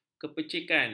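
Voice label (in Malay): "kepicikan", Malay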